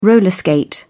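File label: "roller skate", English, British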